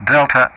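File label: "Delta", English; Ka-50; Alphabet; DCS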